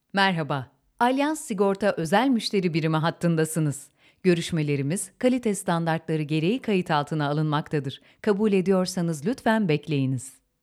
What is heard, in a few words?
dry; allianz